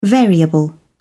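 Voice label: "variable", British English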